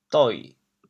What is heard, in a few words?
"doi6", chaoyang